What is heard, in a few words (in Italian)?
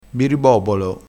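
"biribobolo", dialettale crespinese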